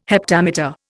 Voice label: "heptameter", Indian

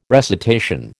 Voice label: "recitation", American

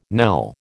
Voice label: "knell", American

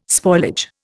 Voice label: "spoilage", Indian

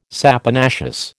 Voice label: "saponaceous", American

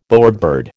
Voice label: "bowerbird", American

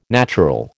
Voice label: "natural", American

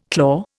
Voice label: "claw", Indian

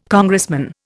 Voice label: "Congressman", Indian

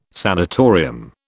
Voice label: "sanitarium", British

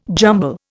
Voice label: "jumble", Indian

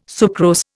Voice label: "sucrose", Indian

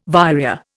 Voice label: "vireo", Indian

